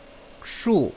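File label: "shu", Chinese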